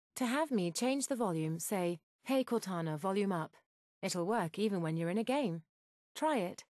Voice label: volume up, en-gb; Windows; HoloShell